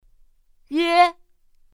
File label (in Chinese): "yuē", pinyin; pinyin2; pinyinji